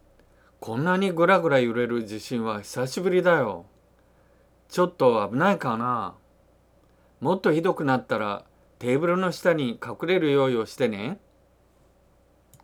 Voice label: dialogue line, japanese